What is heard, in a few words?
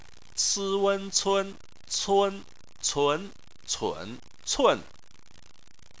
"cun", chinese